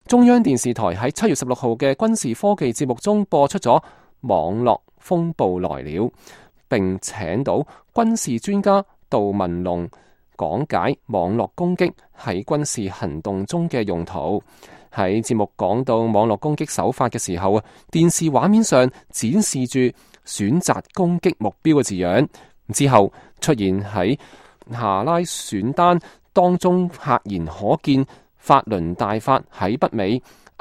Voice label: cantonese